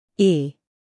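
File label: "e", American English; sounds-of-american-english; everyone-can-use-english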